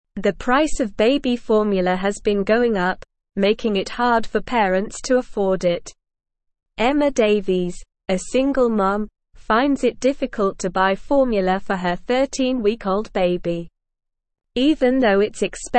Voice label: English Newsroom Upper Intermediate SLOW Reading; Rising Baby Formula Costs Strain Parents Budgets

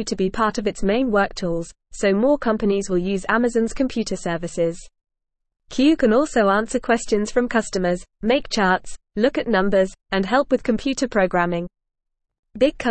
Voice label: English Newsroom Lower Intermediate FAST Reading; Amazons Talking Robot Q Helps People at Work

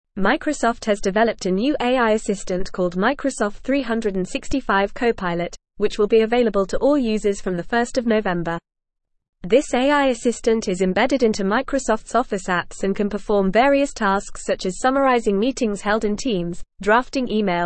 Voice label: English Newsroom Advanced FAST Reading; Microsoft 365 Copilot AI Assistant Raises Job Displacement

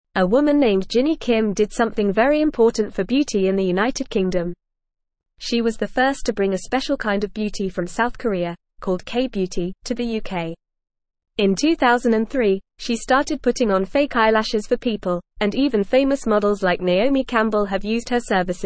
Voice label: English Newsroom Lower Intermediate FAST Reading

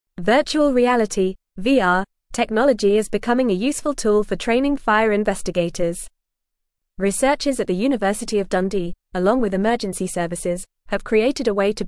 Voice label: English Upper Intermediate FAST Reading; Virtual Reality Revolutionizes Fire Investigation; English Newsroom

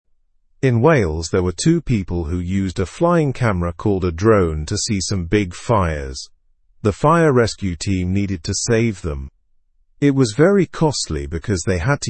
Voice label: english through news beginner normal; stay safe from wales wildfires

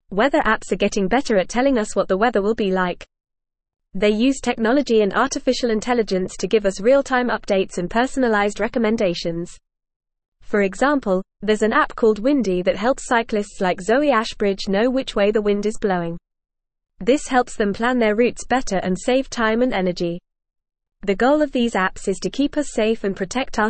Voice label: Upper Intermediate FAST Reading, English; English Newsroom; Advancing Technology and AI Enhance Weather Apps

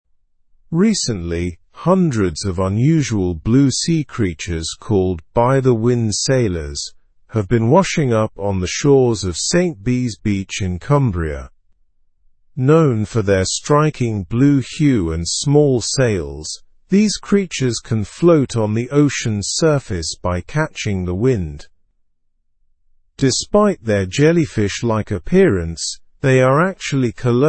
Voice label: learn english through news upper intermediate; hundreds of blue creatures found on cumbria beach; slow

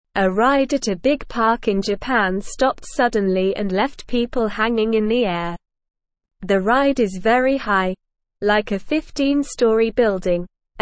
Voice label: English Lower Intermediate SLOW Reading; The Flying Dinosaur Ride Stops High Up; English Newsroom

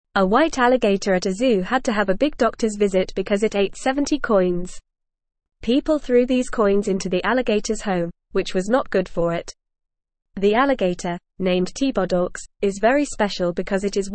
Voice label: English Newsroom Beginner FAST Reading; White Alligator Eats Coins Zoo Doctors Help

